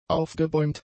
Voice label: "aufgebäumt", German; adjectives